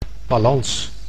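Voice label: "balans", Dutch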